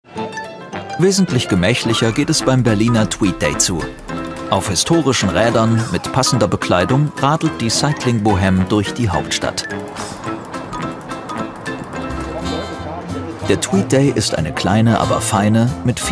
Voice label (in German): Freundlich; ntv